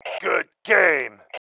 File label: GOOD GAME, male